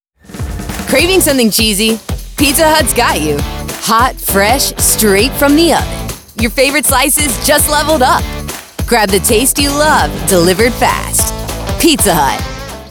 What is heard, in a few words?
female voiceover commercial; pizza hut